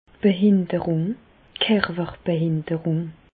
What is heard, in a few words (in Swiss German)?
"behinderung", Alsace, Bas Rhin; infirmité handicap; medecine